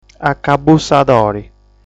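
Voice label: "Acabbussadori", Sardinian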